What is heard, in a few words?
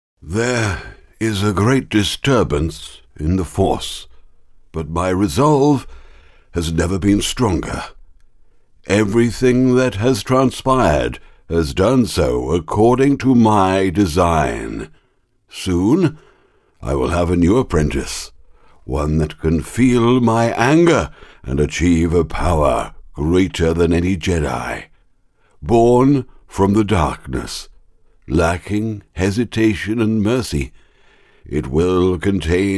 old wizard style uk voiceover